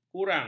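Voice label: "kurang", Malay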